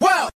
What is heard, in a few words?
"woah", group of men